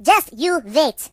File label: Just you wait, Russian; hedgewars